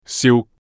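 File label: "siu3", Cantonese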